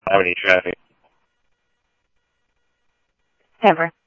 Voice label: Scottsdale Police, scanner audio; H-Scottsdale